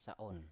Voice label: "sa7on", Biak

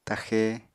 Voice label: "taxe", apatani

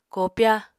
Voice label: "koopya", Apatani

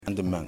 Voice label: "Other Cockatoo", Yimas

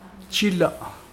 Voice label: axe, Mokilese

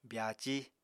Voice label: "byaací", Apatani

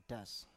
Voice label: "das", Biak

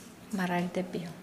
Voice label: Nukuoro; bald

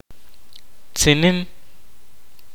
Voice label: kaqchikel; shaker

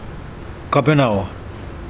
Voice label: Mokilese chin

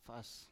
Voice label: "fas", Biak

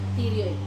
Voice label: "tirioi", Wamesa

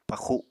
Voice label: "paxu7", Apatani

